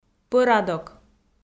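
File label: "пӧрадок", Komi, female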